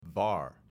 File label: "VAR" pronounced